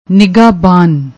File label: "nigahbaan", Punjabi